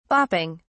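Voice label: "bopping", British English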